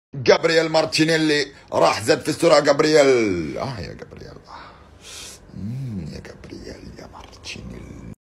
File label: Arabic football reporter